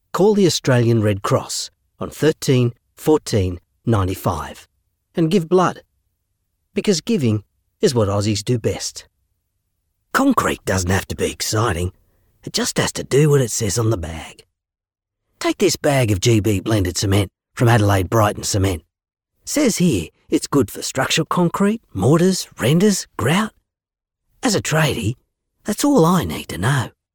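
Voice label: Australian English, male, voice over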